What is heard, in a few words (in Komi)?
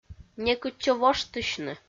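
некытчӧ воштысьны, Komi, female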